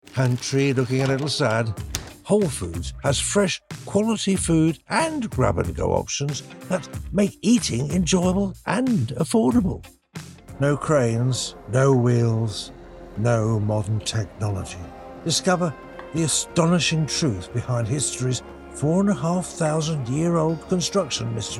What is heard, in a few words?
Commercial